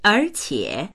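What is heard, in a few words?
"er2qie3", Chinese; 而且; そのうえ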